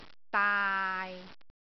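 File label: "daai6", Cantonese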